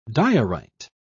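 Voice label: "diorite", British English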